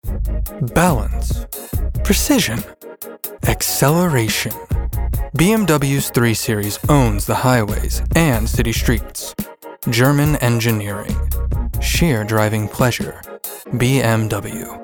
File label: Commercial